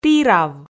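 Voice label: "тірав", Komi, female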